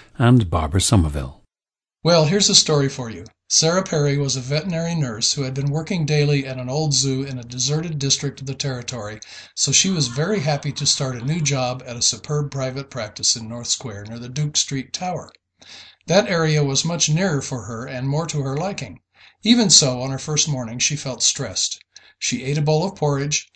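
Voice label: colorado, International Dialects of English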